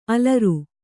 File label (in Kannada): "ಅಲರ್", kannada